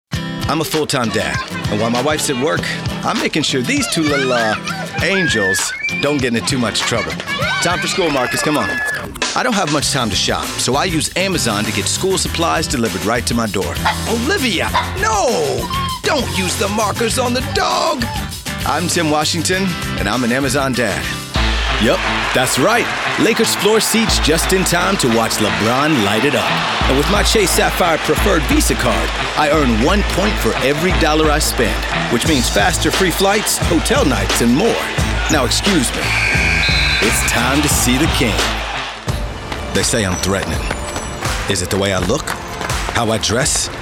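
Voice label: urban commercial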